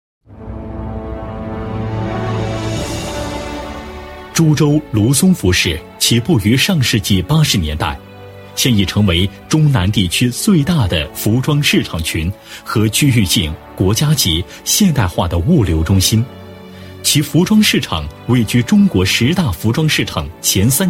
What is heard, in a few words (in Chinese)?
男 专题, 国语男声配音 大气浑厚; 株洲卢松服饰